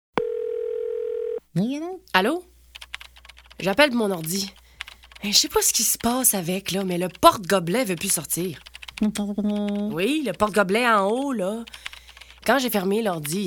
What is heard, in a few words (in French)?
voix femmes, nerds